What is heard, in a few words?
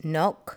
"knock", english